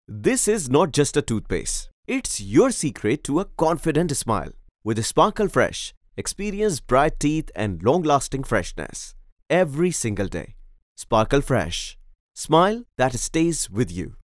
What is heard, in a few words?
English Commercial TV Radio Online Ads